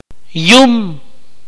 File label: "throw", Akateko